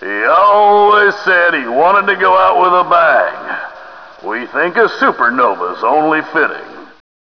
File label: old vet; trainride